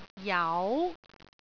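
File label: "jau2", Cantonese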